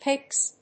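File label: "picks", English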